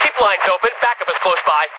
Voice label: Dispatch